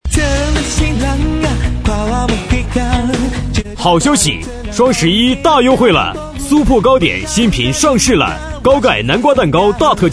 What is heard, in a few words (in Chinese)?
男声【促销】; 双十一大优惠了